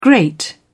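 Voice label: "grate", British English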